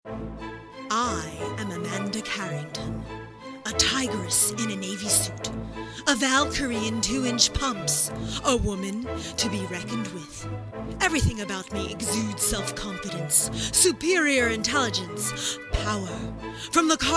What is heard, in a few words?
Commercial Voice Over Long Form